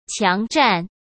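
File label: "强占", Mandarin Chinese; chinese-flashcards; hsk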